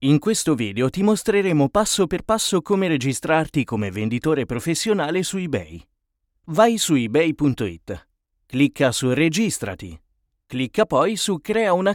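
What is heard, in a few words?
e-learning, Italian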